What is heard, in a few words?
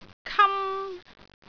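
"kam1", Cantonese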